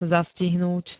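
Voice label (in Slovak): "zastihnúť", Slovak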